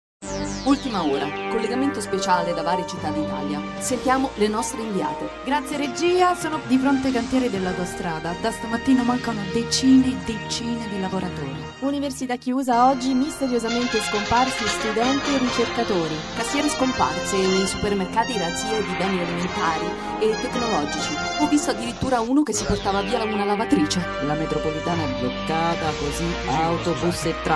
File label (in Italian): spot; aperirecario